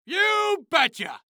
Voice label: Cartoon Loud Voice You Betchya